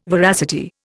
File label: "veracity", Indian